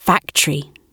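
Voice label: "factory" spoken, British English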